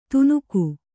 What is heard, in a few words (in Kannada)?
"ತುನುಕು", Kannada